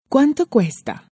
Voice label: cuanto cuesta, Spanish

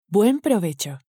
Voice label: "buen provecho", Spanish

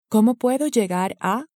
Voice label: como puedo llegar a, Spanish

